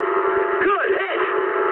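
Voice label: English bomb success mood high; War Thunder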